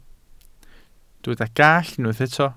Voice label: "gallt", welsh

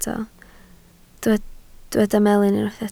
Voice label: "melyn", Welsh

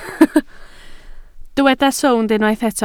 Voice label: "sownd", Welsh

